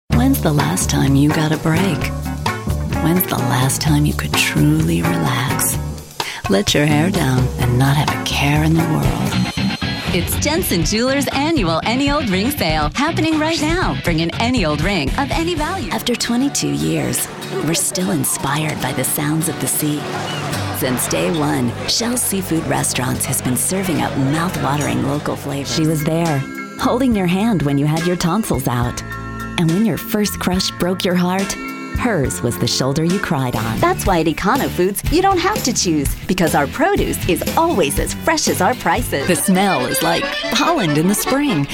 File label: Web Announcer